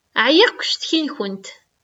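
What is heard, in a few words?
Kabyle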